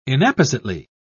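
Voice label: "inappositely", British English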